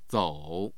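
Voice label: "zou3", Mandarin Chinese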